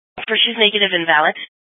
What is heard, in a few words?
Police Dispatch